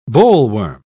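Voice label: "bollworm", British English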